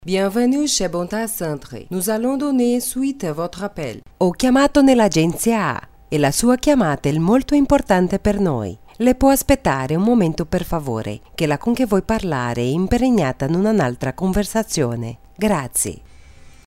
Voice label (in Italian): frances e italiano